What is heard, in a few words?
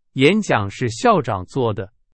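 Chinese; hsk2; 是。。。的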